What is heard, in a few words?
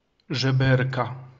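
"żeberka", Polish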